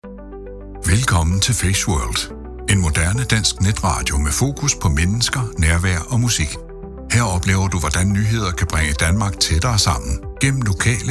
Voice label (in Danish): Radio Reklame